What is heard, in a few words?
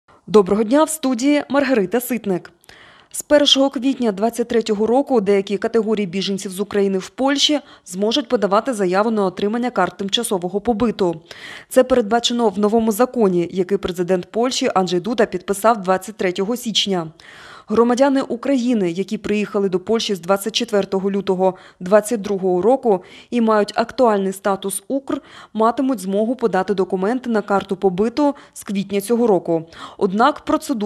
Ukrainian Morning News